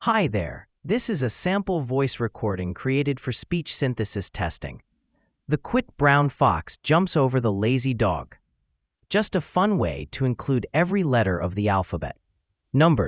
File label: voice telephony 8khz